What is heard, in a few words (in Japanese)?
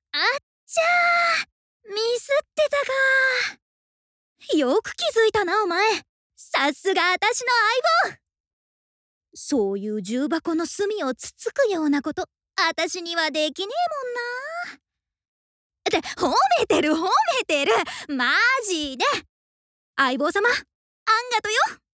10代女子